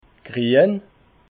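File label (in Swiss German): "grien", Alsatian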